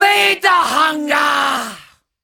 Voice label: Female Taunt, Cannibal, dialogue